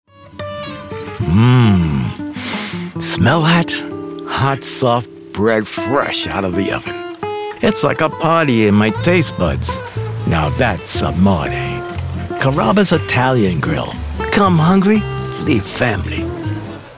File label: Italian Guy; Carrabbas